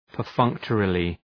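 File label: "perfunctorily", English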